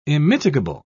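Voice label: "immitigable", British English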